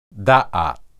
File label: "daath", Hebrew